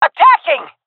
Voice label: attacking, jungle fem; agents; jungle fem epic

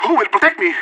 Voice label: scared emote; Counter Strike Model Pack; CS:GO; leet

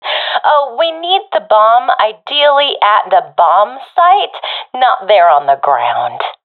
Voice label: sees dropped bomb, professional fem; vo; Counter Strike Model Pack; CS:GO

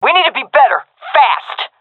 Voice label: round losing, fem; vo; agents; Source Model Packs; Counter Strike Model Pack; CS2

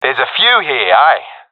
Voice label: sees multiple enemies, professional; vo; Counter Strike Model Pack; CS:GO

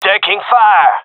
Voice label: takingfire, male; vo

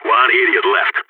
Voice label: one enemy left, balkan; vo

